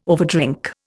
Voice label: "overdrink", Indian